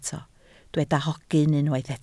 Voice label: "hogyn", Welsh